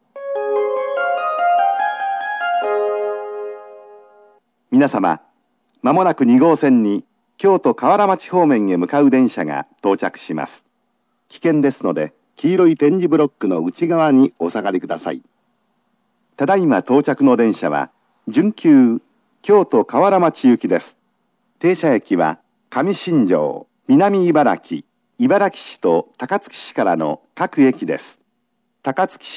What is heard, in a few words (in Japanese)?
semi express, subway osaka